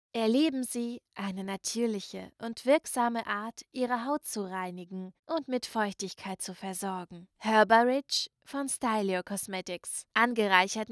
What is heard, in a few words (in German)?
Hautprodukt Werbung